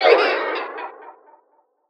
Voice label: cry; Abigail